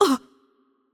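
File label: Hurt Light, Arabic; bloom minigame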